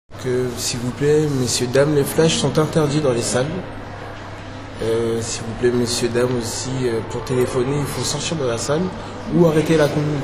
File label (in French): agent surveillance donne des instructions